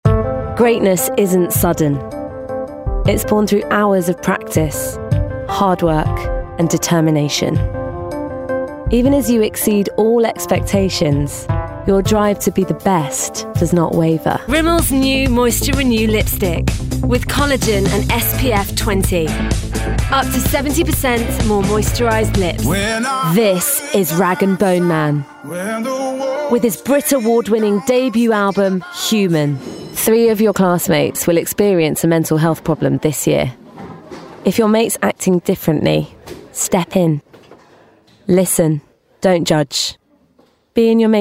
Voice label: Commercial Showreel

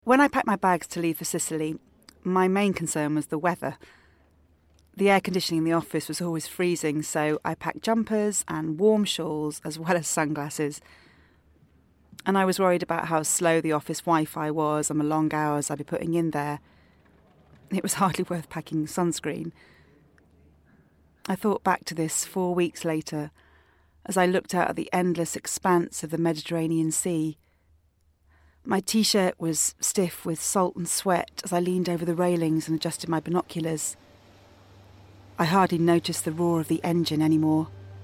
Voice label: Drama

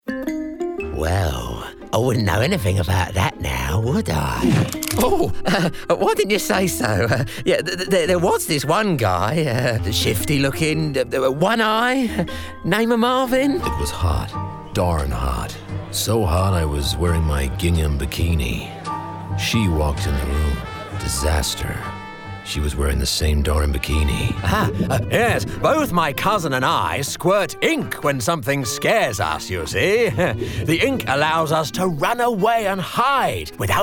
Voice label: Animation

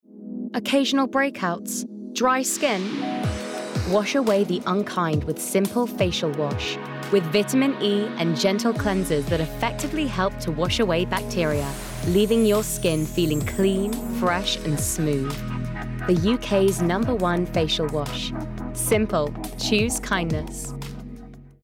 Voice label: Commercial Simple

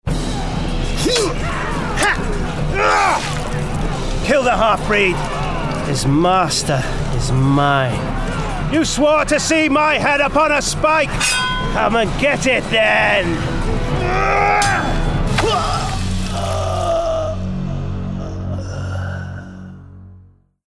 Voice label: Gaming Warrior RP; Gaming Character – Warrior RP

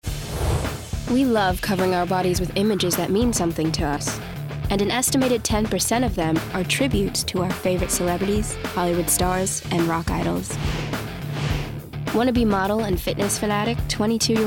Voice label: Tattoo Doc US

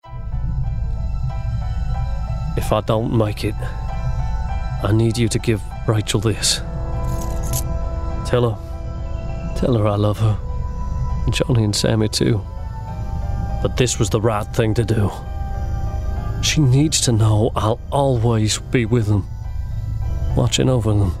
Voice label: CHARACTER Soldier Southern US

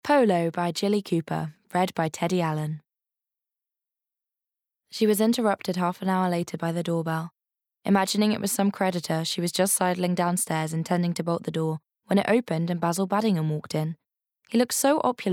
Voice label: Audiobook; Polo

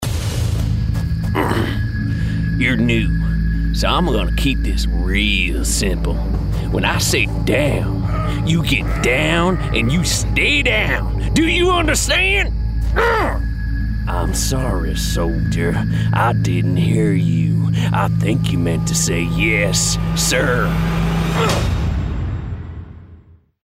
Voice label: Gaming Character Soldier

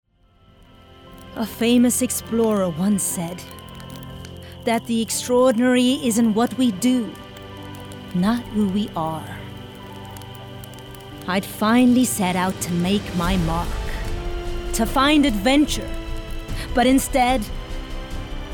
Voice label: Character; American Explorer

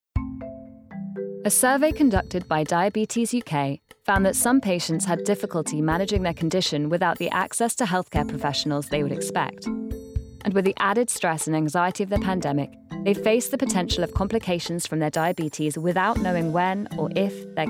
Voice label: Corporate

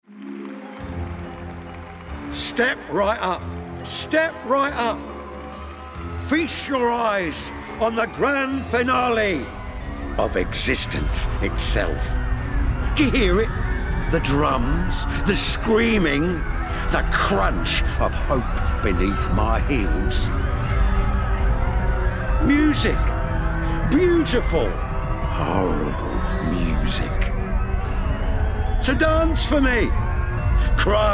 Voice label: Gaming Crazed Ringmaster

